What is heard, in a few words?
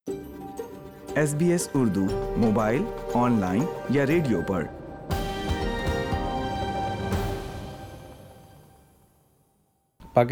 urdu; SBS Urdu; cricketer; Australia to get a feel of 'Kabaddi' sport in Cricket